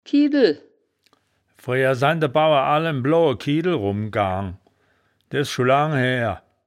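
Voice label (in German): "kiedel", wittlicher woerterbuch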